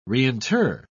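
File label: "reinter", British English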